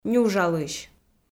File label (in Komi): "нюжалысь", Komi